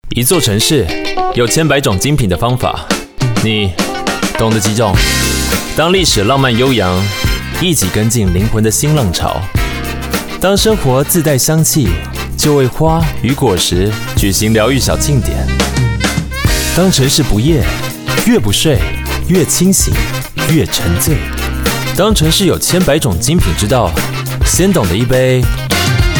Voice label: Taiwanese